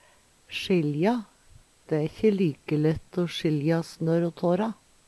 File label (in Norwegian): sjilja, Numedalsmål